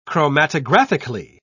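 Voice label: "chromatographically", British English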